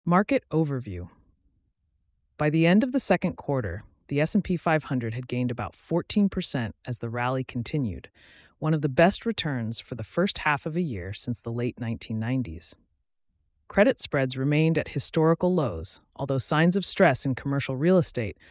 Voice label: Voiceover; AI-Generated Media; Remix Audio